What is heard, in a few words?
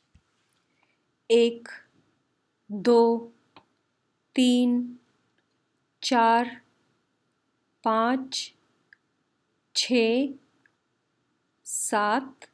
Numbers in Hindi 1 25